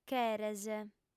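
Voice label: "cheres", female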